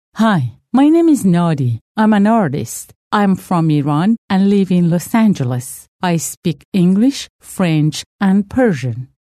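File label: Farsi, female, English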